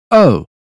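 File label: "nose", British English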